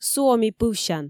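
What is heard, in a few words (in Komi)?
суоми пывсян, Komi, female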